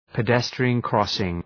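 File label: "pedestrian crossing", English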